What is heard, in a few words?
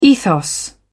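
"ethos", British English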